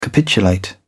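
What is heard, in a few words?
"capitulate", English, British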